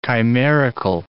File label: "chimerical", English